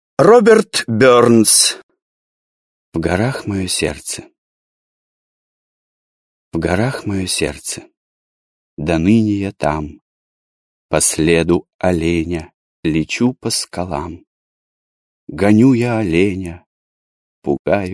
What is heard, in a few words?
russian male